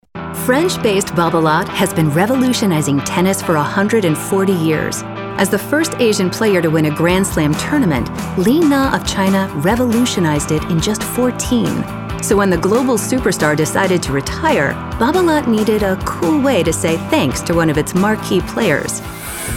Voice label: women narration